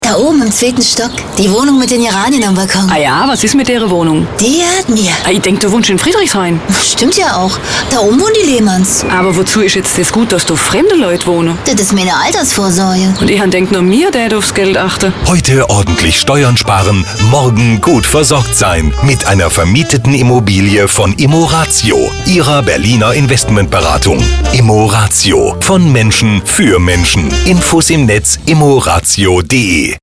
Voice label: Immobilien Radiowerbung

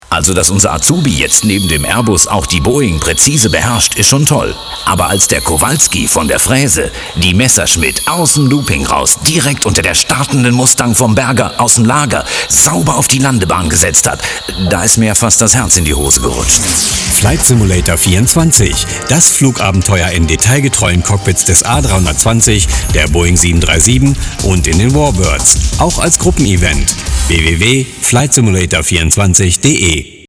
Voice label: Entertainment Radiowerbung

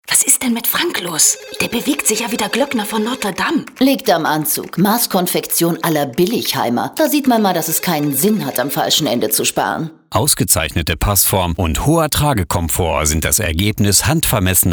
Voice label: Fashion Mode Radiowerbung

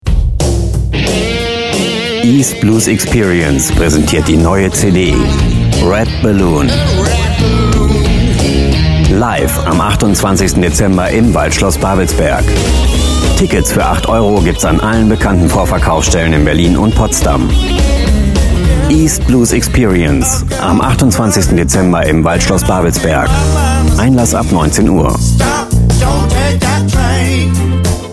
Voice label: Entertainment Radiowerbung

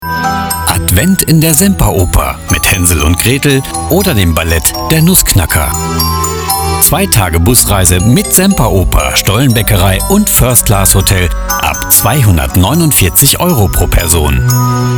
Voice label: Tourismus Radiowerbung

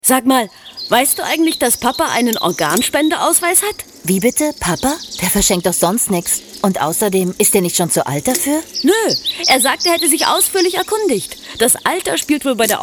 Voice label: Gesundheitswesen Pharma Radiowerbung

